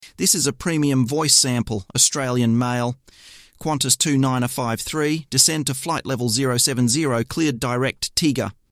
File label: Australian